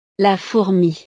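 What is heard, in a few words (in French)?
"la fourmi", French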